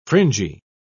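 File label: "fringy", British English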